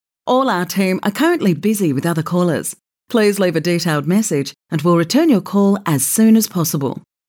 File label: IVR; Yarra Valley Gas